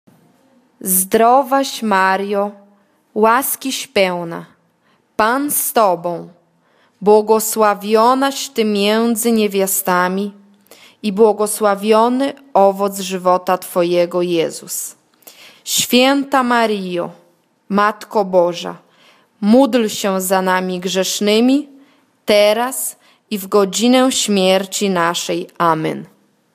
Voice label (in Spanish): ave maria polaco lento; ave maria idiomas